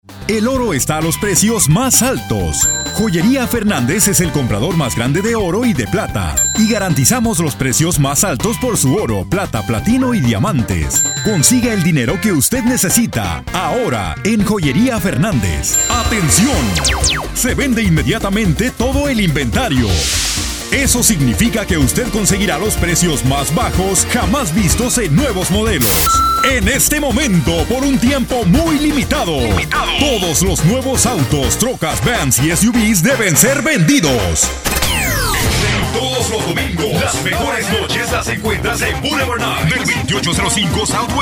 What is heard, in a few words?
spanish commercial; languages and accents